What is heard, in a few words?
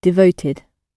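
"devoted", British English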